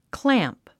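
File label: "clamp", English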